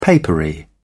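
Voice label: "papery", British English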